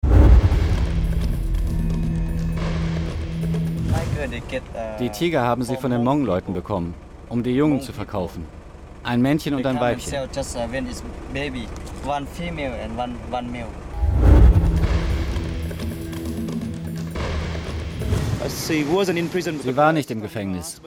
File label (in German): voiceover doku; die tigermafia